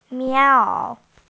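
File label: "mián ǎo", Mandarin Chinese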